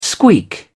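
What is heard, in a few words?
"squeak", British English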